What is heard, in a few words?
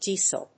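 "diesel", English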